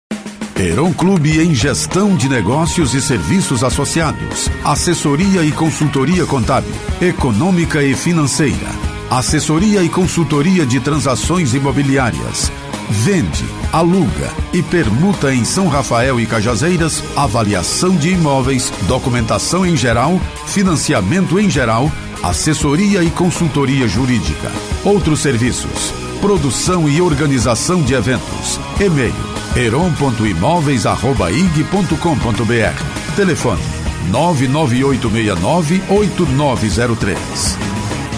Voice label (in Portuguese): locutor